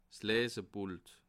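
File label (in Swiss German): "leseput", Bas Rhin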